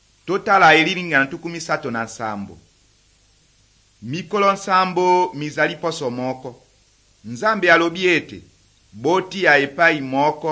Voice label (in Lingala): good news lingala west, drama